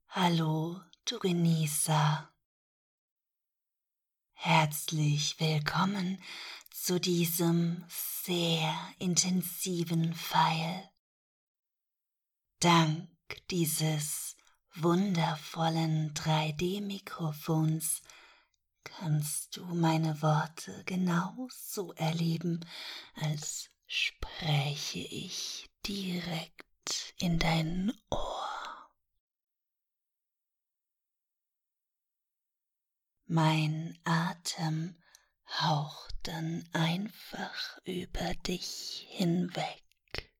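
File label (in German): Hypno Training, Erotische Hypnose; Ohrgasmus; Das Warm-Up; Während dieser kurzen Übung, tauchst du in eine sanfte Trance.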